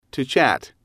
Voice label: to chat, English

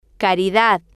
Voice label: "caridad", spanish

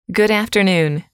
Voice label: "good afternoon", English

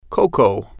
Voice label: "coco", spanish

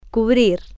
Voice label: "cubrir", spanish

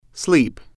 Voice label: sleep, English

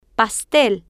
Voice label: "pastel", Spanish

